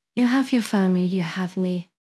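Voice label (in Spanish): Voice Acting es F, Spanish, female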